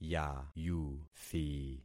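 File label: "yayuthi", concatenated syllables; Affixing experiment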